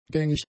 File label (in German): "gängig", German; adjectives